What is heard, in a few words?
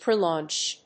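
"prelaunch", English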